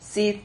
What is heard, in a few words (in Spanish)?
"sid", Silabas